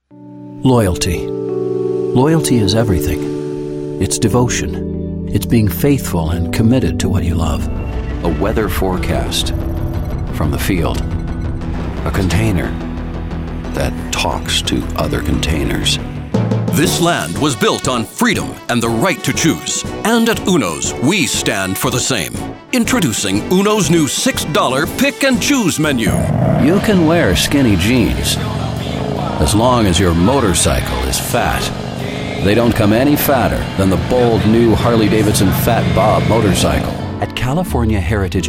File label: Friendly baritone casual